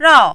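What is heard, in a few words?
"rao", Mandarin, fourth tone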